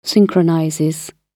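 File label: "synchronizes", British English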